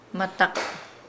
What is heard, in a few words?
"frighten", Nukuoro